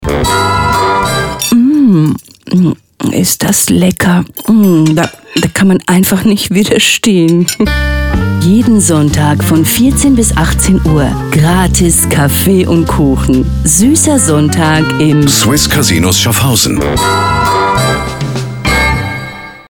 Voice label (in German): Radio Spot, sprecherin; Swisscasinos; Suesser Sonntag; OAW